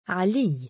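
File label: "3aliiy" male, Arabic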